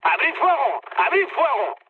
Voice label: attack position, mood high, speech; War Thunder